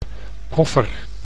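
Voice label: "koffer", Dutch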